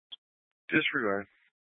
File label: Mesa County Sheriff Dispatch; scanner